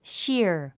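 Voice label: "sheer", 英単語 発音; ʃíə r シア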